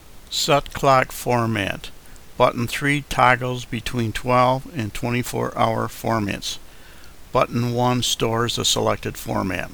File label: set clock format, male English